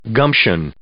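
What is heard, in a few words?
"gumption", English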